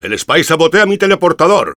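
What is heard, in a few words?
Engineer auto attacked by spy, Spanish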